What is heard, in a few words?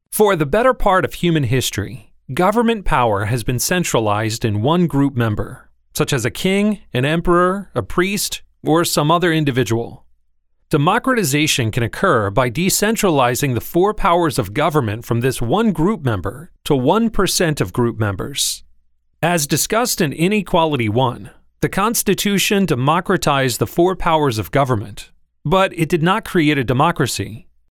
audiobook; audiobook narrator